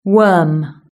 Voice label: "worm", British English